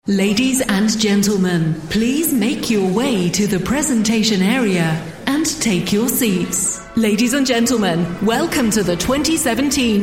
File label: english british others